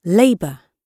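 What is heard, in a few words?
"labour", British English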